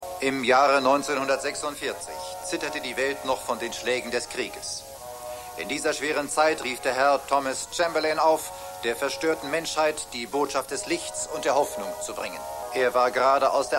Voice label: Filmsprecher

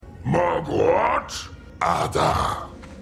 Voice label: Orc, Stimmproben; DER HERR DER RINGE: DIE RINGE DER MACHT; The Lord of the Rings

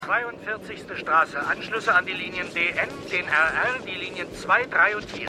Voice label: Durchsage; Seinfeld

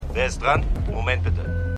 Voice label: Telefonstimme; The Good Fight

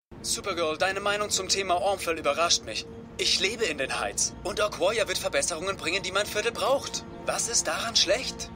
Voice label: Mann; Supergirl

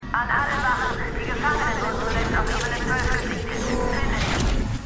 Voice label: Durchsage; "Was du mitnimmst" ("What You Take with You"); The 100

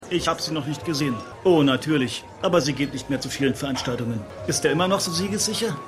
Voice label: Mann; The Wire

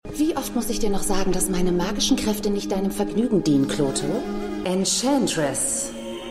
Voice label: Dienerin, Stimmproben

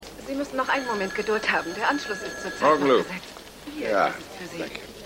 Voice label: Telefonistin

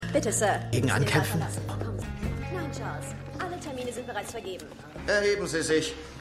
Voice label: Stimmproben Gerichtsdienerin Sekretaerin Gerichtsdiener; Bluff City Law

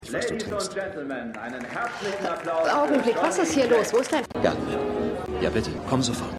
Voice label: Moderator Kellner

